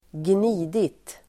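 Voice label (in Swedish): "gnidit", Swedish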